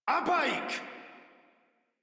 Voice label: Attack, Modular Corpus Male; Warframe

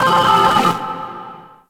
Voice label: Moon Glitched Voice; Ambience; Gameplay; Warframe; Orokin Moon

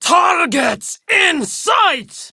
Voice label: Enemy Spotted Female

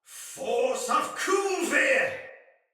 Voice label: Attack Heavy Soldier Male; Sinecure Soldier Male A

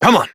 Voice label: Horse Start Adult, Dialog; Duviri

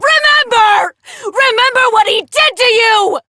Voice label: Adult Op, en; Warframe; New War

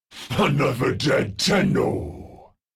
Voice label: Player Spotted, Battle Barks

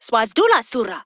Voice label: Ost Fem en; Cetus

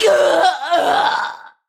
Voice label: Death Ranged, Battle Barks; Tau; Tau Dax

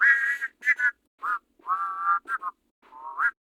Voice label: Patrol, Male

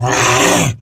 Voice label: Attack Grineer Wolf, en; Battle Barks

